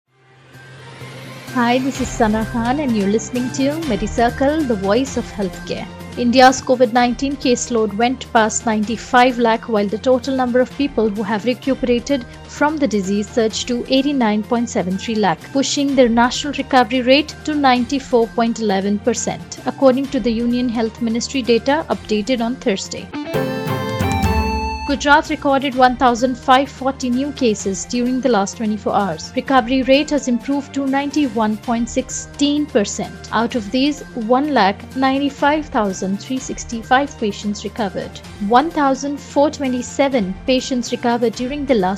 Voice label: english news